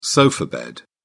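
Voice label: "sofa bed", British English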